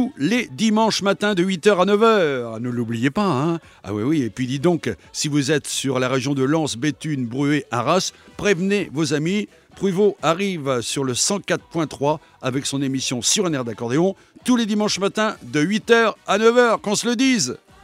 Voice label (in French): BANDE ANNONCE RADIO; RADIO PLUS; sur un air d'accordeon